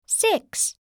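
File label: "six", English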